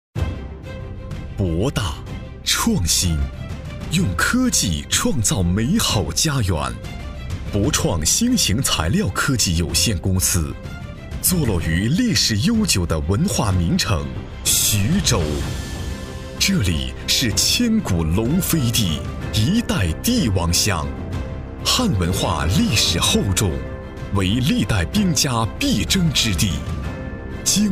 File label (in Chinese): 企业宣传; 企业宣传博大创新用科技创造美好家园